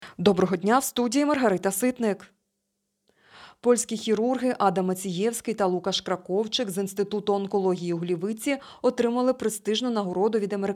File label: Ukrainian Evening News